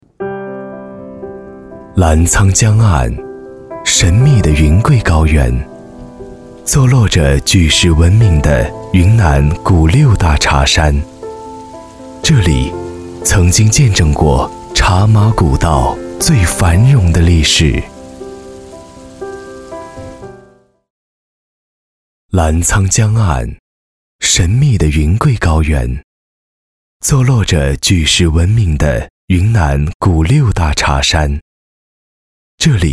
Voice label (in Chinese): 品质广告 茶